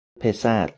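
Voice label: "pesar", Persian, male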